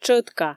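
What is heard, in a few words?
"тшӧтка", Komi, female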